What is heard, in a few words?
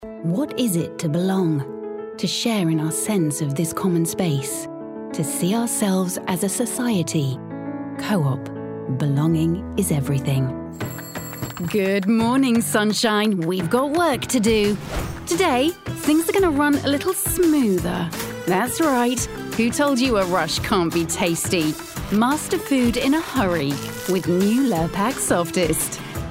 british accent, english female voices